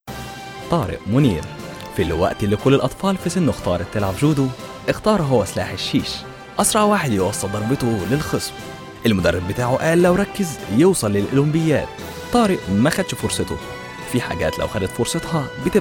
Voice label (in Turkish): Arapca Erkek Misir Arapcasi, seslendirme; erkek sesler